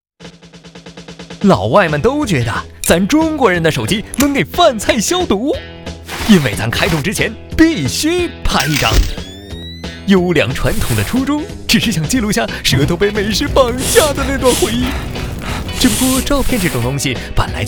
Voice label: 轻松搞笑美食日记

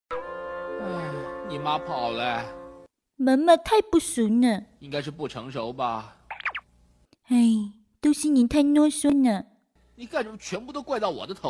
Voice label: 动画配; 适应力超强的小新

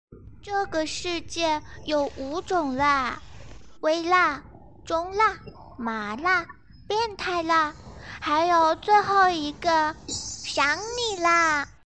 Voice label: 萝莉; 有五种辣

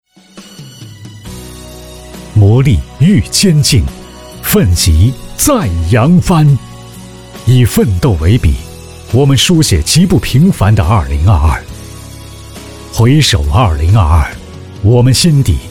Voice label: 银行汇报专业感性

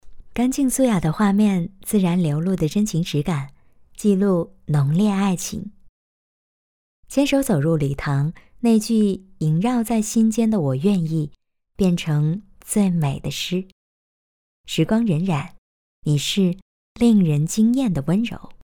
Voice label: 视频干音

